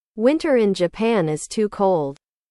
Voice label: english

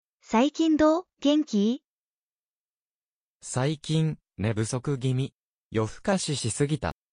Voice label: anime, Natural Japanese Conversations

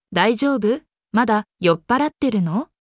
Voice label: anime, japanese anime and internet slang

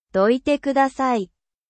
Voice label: japan

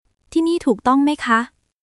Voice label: Thai, basic thai phrases; タイ旅行で必須の「伝える力」を磨く！カタカナ発音で覚える基本の100フレーズ

